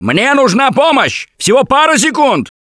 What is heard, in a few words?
call for rescue, russian; Gambler